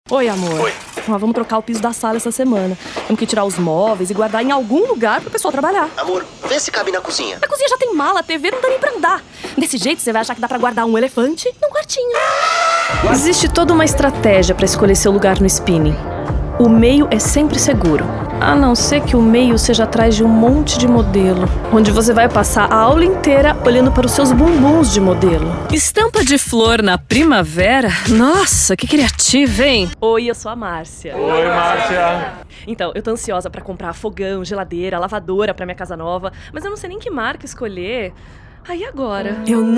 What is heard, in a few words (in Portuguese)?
rolo atriz